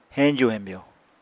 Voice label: "heñju-hemyo", apatani